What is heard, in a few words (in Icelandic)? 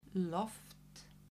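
"loft", Icelandic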